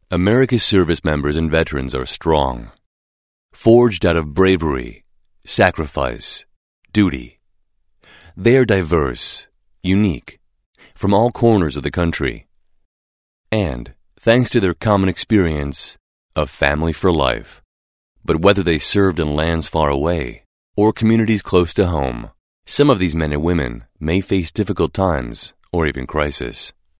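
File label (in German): US English Werbung Variabel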